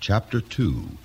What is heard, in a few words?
chapter two male; Voice; numbers and letters